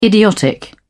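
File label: "idiotic", English, British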